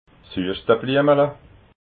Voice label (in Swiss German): "hueschtabliemala", alsace